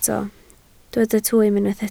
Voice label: "twym", Welsh